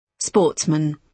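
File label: "sportsman", British English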